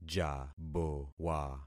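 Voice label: "jabowa", concatenated syllables; Affixing experiment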